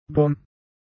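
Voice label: "Bonn", German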